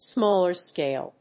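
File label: "smaller-scale", English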